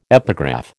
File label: "epigraph", American